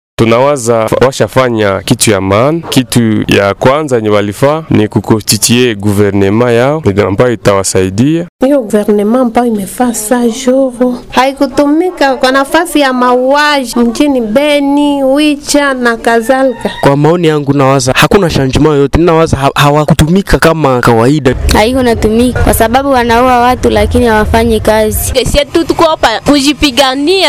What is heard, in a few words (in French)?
VOX POP; 100 JOURS; GOUVNMT SAMA LUKONDE; Butembo